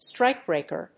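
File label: "strikebreaker", English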